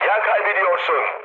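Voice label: Turkish damaged oil, mood med, speech; War Thunder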